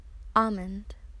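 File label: "almond", Australian English, female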